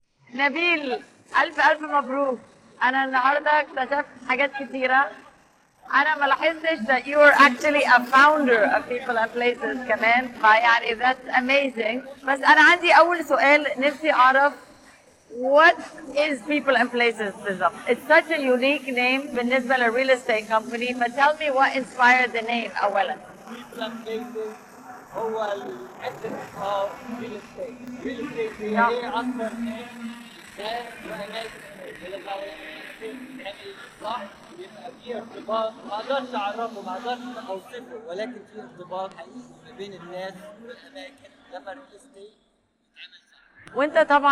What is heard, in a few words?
Cleaned, noise isolation